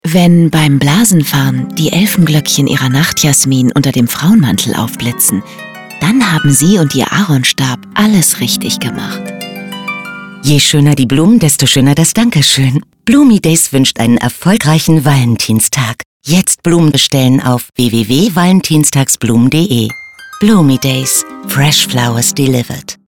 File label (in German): Funkspot; BloomyDays; Valentinstag; bunch sound; Berlin; Funkspotproduktion